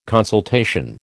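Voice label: "consultation", American English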